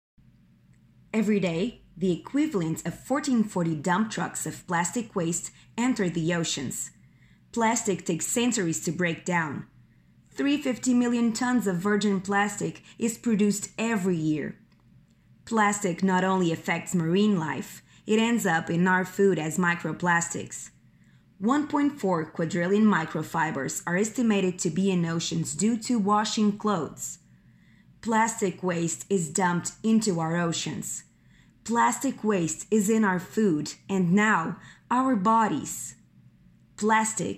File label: English